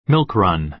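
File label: "milk run", American English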